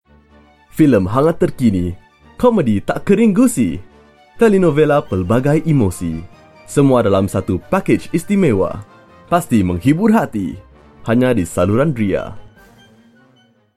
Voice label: Malay; Ria Filem Terkini